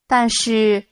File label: Mandarin Conversation